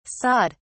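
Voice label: "sawed", English, British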